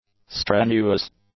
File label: "strenuous", English; adjectives